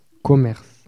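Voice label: "commerce", French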